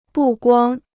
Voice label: "不光", Chinese

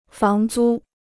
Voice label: "房租", Chinese

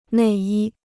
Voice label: "内衣", Chinese

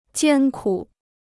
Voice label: "艰苦", Chinese

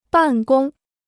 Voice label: "办公", Chinese

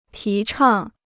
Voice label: "提倡", Chinese

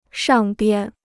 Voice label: "上边", Chinese

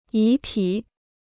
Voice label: "遗体", Chinese

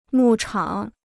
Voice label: "牧场", Chinese

